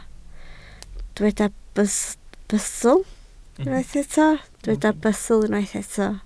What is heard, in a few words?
"bustl", Welsh